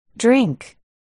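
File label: "drink", spoken word, articulation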